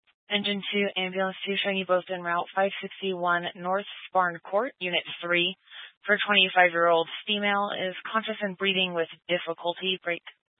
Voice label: Fire Dispatch, scanner

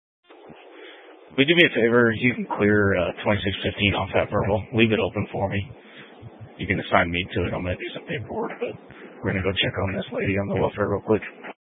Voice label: Sheriff Dispatch; Mesa County

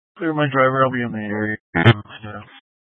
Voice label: Sheriff Dispatch

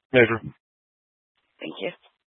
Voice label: Sheriff Dispatch; Mesa County

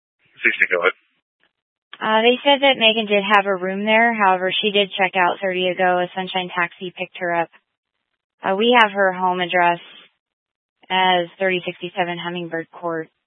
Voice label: Fire Dispatch, scanner

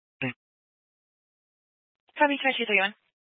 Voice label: Grand Junction Fire Department Dispatch

